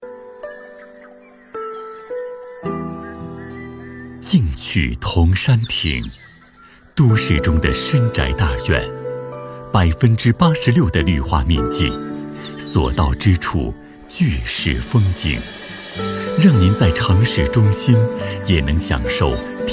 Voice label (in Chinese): 男 房产宣传片; 房产漫游动画; 房产宣传片案例